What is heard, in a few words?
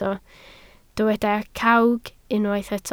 "cawg", Welsh